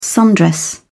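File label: "sundress", English, uk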